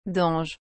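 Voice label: "dange", French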